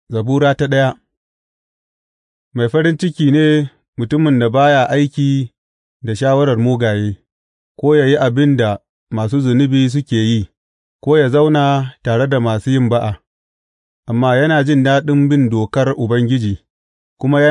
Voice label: hausa bible drama, psalms; hausa bsn nt drama

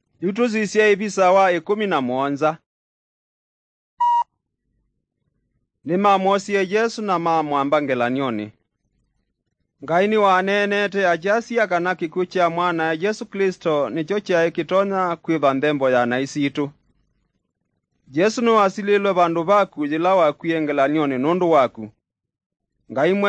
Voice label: kikamba drama; good news; bible

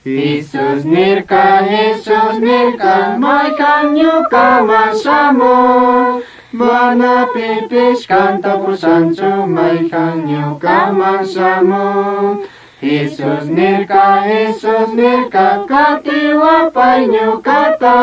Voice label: words of life quichua chimborazo

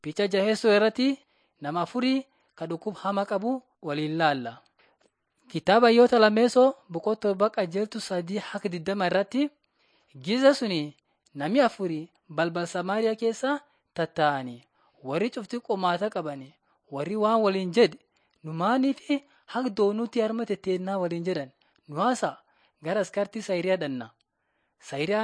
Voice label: borana sakuye; laali chaqasi jiradin 5 jilalacha waaqat; on trial for god

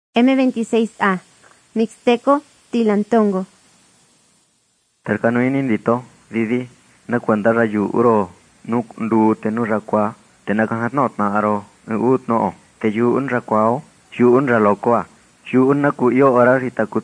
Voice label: mixtec diagnostic spanish mexico

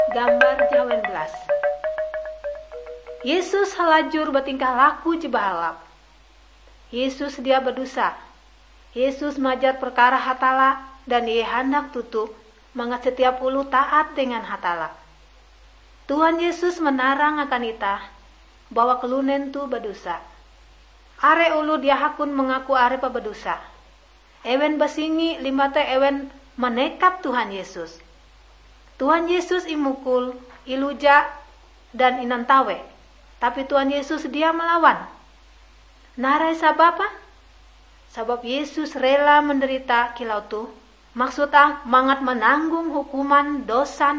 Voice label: kabar bahalap good news ngaju, drama

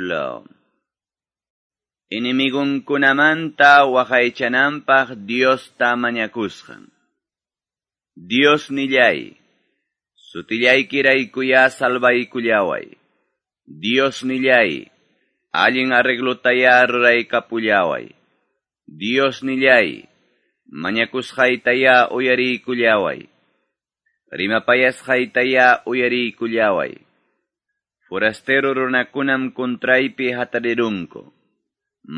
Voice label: salmos, quechua ayacucho; quechua ayacucho pbs non drama

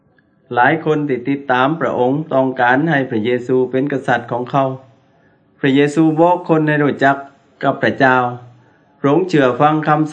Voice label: words of life creation to christ thai southern

